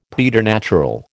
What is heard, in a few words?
"preternatural", American